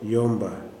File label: "person", kuman